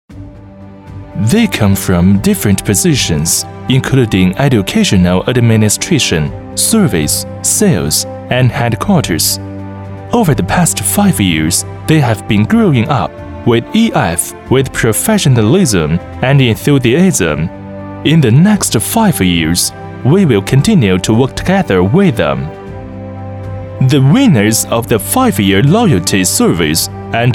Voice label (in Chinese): 男 英文 纪录片